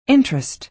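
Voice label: "interest", American English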